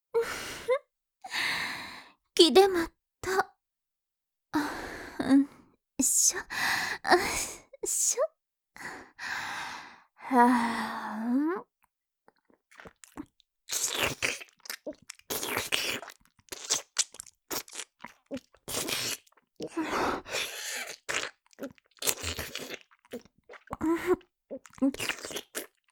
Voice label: 津軽弁; 玄関あけて９秒で即尺からのおねだりクパァ; アヘ声裏垢女子; 本編効果音無し

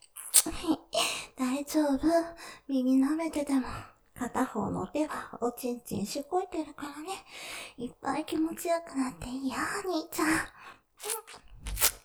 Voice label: 耳責め 耳舐めながら; おちんちんしごいてるからね♪; ループで楽しむ！シェフの気まぐれ抜き抜きコース

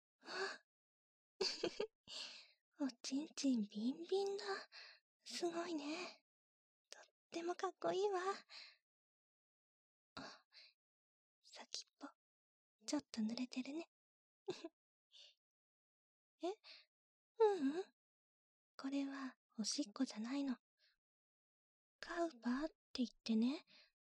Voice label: 囁き精通; 真っ白なおしっこ、たくさんびゅーしようね?

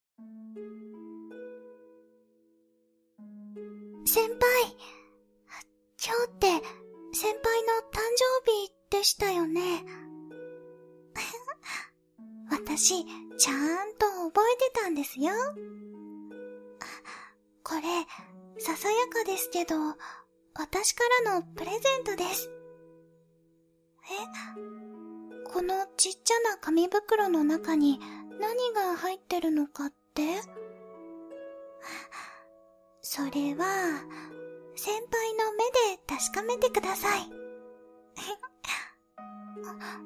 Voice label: voice BGMあり, ASMR

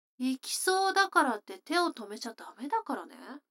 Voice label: 女の子 台詞

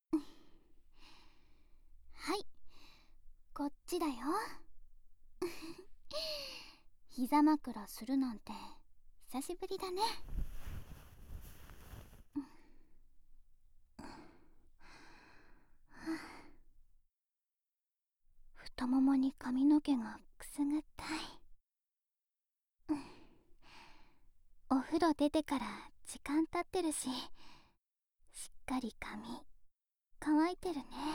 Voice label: アフター耳かき, ASMR

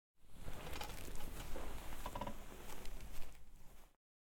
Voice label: 大人の女性の責め、耳舐め（右耳）; ASMR

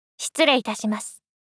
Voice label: 失礼致します 大人×低音域

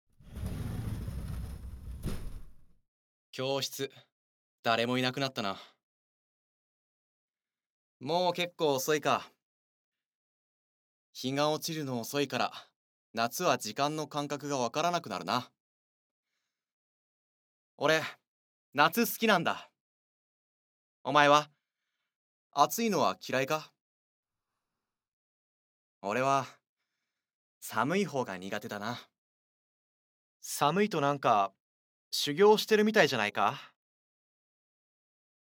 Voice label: 突然のキス, ASMR; SE有り

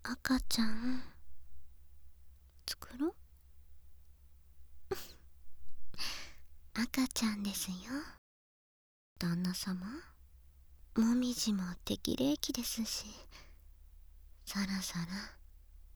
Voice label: 赤ちゃん作ろ♪ はにかみ

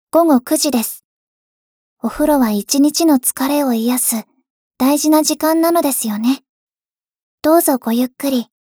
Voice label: 時報ボイス 電脳少女; ボイスコレクション 電脳少女編

